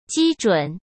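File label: "基准", Mandarin Chinese; chinese flashcards; hsk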